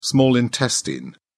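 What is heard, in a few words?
"small intestine", English, British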